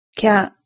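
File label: "kya", Japanese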